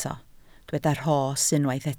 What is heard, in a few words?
"rhos", Welsh